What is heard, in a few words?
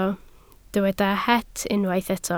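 "het" Welsh, spoken word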